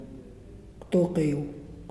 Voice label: "Stand", Muyuw; Lae Unitech

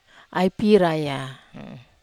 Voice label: "ai pira", wamesa

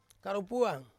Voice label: "karupuang", back, Ansus

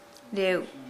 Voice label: Nukuoro; ripeness

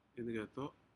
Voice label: "incedo", Aneityum; shellfish

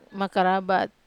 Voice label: "makarabat", Wamesa

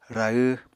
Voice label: "rahw", Apatani

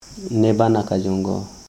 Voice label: I live in Bana, Koro

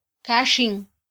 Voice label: "kaxin", mam

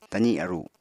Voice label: "tazi aru", Apatani

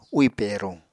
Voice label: "úì peruñ", Apatani

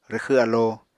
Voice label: "rwxw alo", Apatani

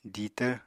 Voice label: "ditvr", Apatani

